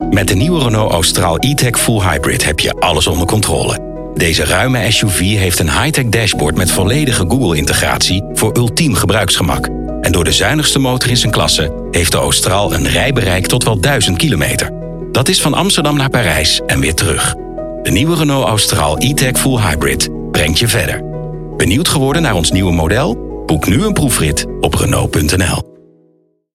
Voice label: Spot; Renault